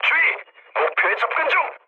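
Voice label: Korean near waypoint mood medium; speech; War Thunder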